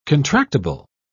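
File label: "contractible", British English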